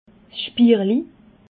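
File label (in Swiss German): "spirli", Alsatian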